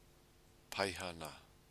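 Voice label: "paihana", Māori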